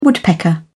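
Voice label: "woodpecker", British English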